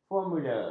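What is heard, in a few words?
"formula", Malay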